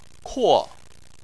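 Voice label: "kuo" fourth tone, Chinese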